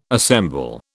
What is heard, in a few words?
"assemble", British